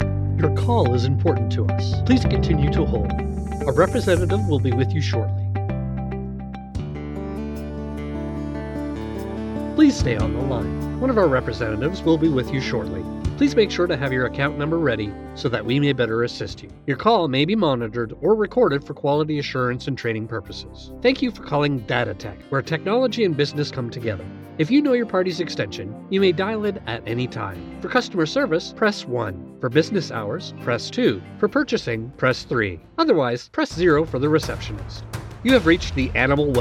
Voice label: IVR Demo